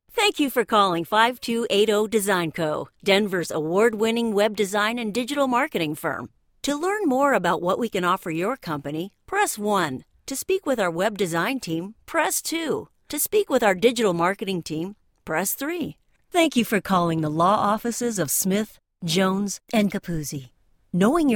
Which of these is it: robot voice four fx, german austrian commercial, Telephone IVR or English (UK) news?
Telephone IVR